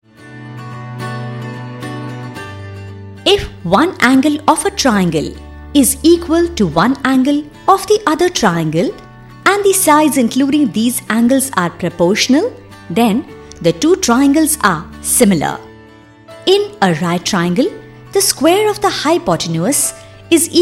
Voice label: English international e-learning